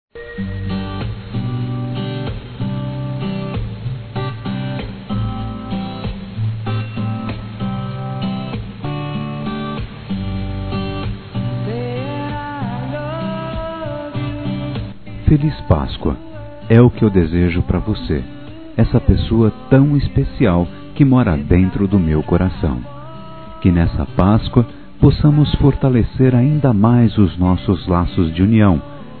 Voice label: ROMANTICA, masc

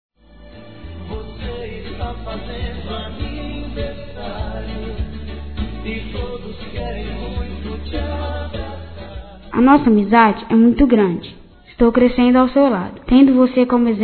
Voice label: Aniv. Pai Masculino; Telemensagens 2017; Aniversários; Aniversário Infantil

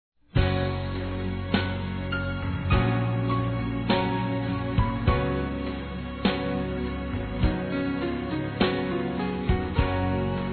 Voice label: ME PERDOE, Românticas, fem

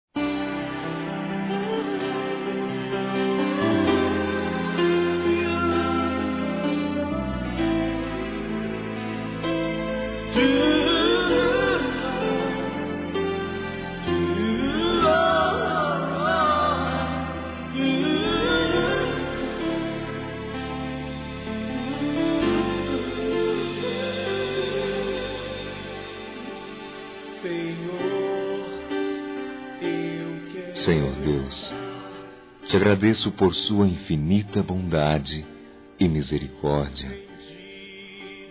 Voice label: EVANGÉLICA M, Telemensagens, Gospel, masc

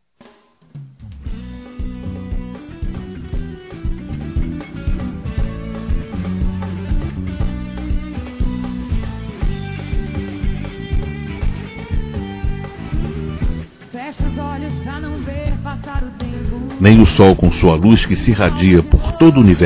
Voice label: Neutro Adolescente Masculino; Telemensagens 2017; Aniversários; Aniversário Infantil

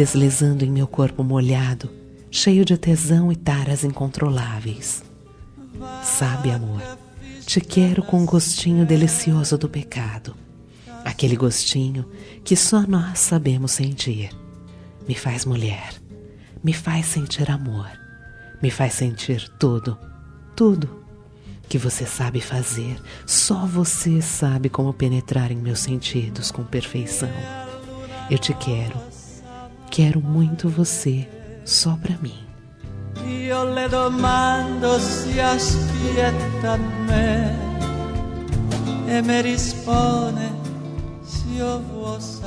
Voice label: ROM GOSTO TEU DESEJO FEM

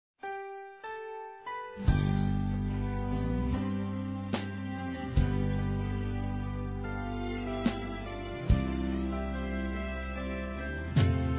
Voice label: Mensagem Mãe Masculino, Gospel

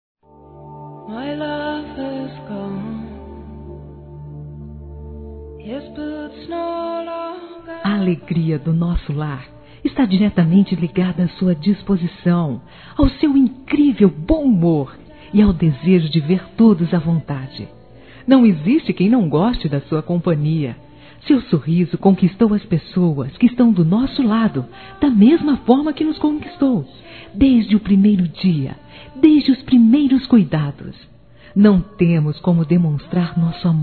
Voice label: FAMILIAR P MÃE F, Telemensagens; Telemensagens 2017

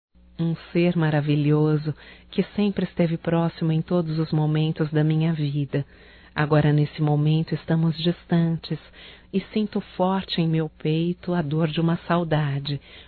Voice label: SAUDADES MAE, Telemensagens, Só Voz, fem

